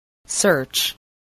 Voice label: "search", english spelling